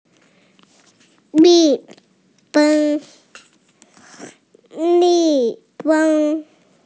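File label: "mi4 feng1", Mandarin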